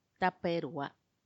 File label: "tappaerua", twentyfour, macuiltianguis; veinticuatro